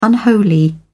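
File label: "unholy", British English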